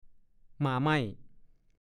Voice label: "ม่าไม่", ภาษาโคราช; สัตว์ชนิดหนึ่งคล้ายกระแต แต่ตัวเล็กกว่า เรียกหมอไม่ ก็มี

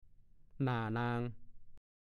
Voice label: "นาแซง", ภาษาโคราช

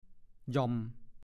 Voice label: "หย่อม", ภาษาโคราช; กระจุก คุ้มบ้าน

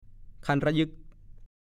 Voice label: "คันร่ะยึ่ก", ภาษาโคราช; คันคะเยอ อาการที่ต้องเการ่ำไป ร่ะยึกร่ะยืน ก็พูด

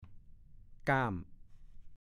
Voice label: "ก้าม", ภาษาโคราช; ฐานข้อมูลพจนานุกรมภาษาโคราช

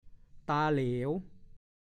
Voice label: "ตาเหลว", ภาษาโคราช

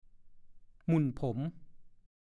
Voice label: "หมุ่นผม", ภาษาโคราช; ขมวดผม

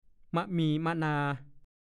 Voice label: "มะมีมะนา", ภาษาโคราช; กระวีกระวาด รีบเร่ง

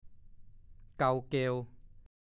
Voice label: "เกาเกว", ภาษาโคราช; รบกวน

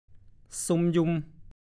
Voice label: ภาษาโคราช; ซุมยุม; 1. กระเซิง รุงรัง

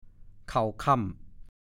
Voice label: "เข่าค่ำ", ภาษาโคราช

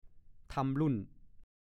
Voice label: "ทำลุ่น", ภาษาโคราช; ทำลายวัชพืช ถากถางวัชพืช

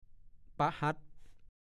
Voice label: "ปะหั๊ด", ภาษาโคราช; วันพฤหัสบดี

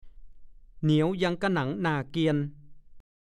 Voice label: "เหนียวยังก๊ะหนังหน่าเกียน", ภาษาโคราช; (สำนวน) ตระหนี่

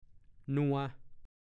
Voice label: "นัว", ภาษาโคราช; ข้น

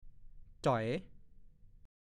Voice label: "จ้อย", ภาษาโคราช; ใช้ต่อท้ายคำเพื่อเน้นความหมายให้เห็นเด่น หรือชัดเจนขึ้น

